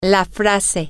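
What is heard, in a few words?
phrase, Spanish, female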